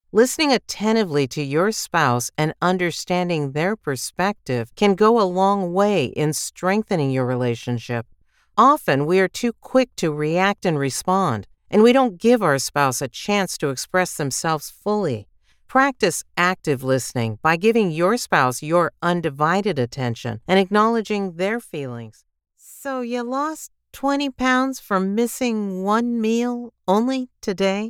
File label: Audiobook